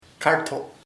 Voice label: "spider araña", chamacoco